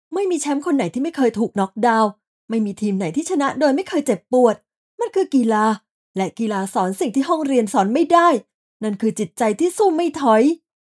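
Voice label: Narration Sport, Female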